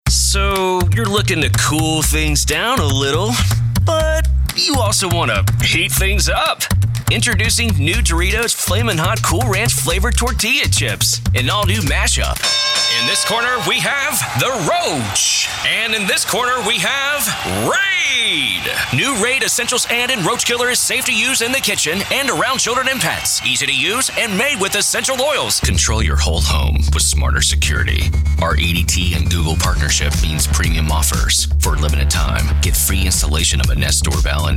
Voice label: commercial